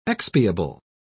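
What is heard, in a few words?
"expiable", English, British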